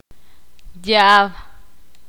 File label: ixil; estar enfermo